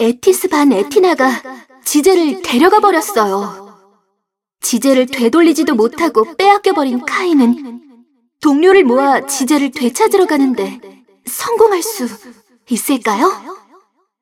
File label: cinematic voice; lineage2